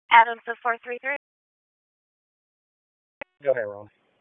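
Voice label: Police Southeast District Dispatch, p25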